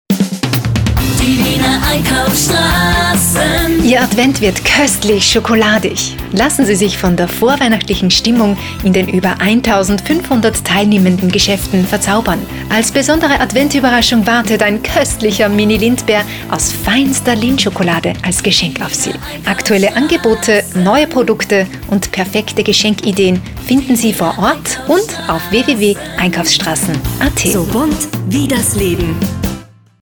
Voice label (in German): Radiospot; WIENER EINKAUFSSTRASSEN